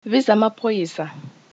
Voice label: police zulu